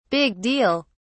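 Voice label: "big deal", English, British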